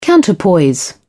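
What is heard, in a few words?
"counterpoise", British English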